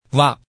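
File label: "va̍k", Hakka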